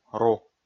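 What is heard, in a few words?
"ру", Komi, male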